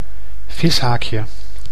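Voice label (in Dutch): "vishaakje", Dutch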